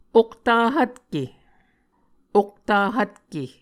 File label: "okta hvtke", Muscogee